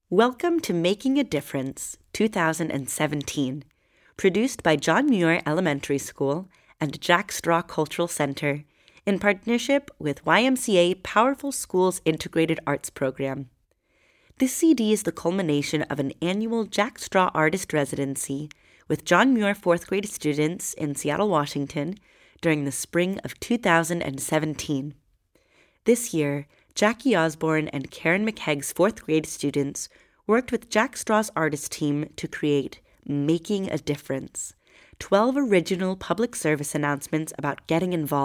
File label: Opening Narration; John Muir Elementary School Making a Difference PSA 2017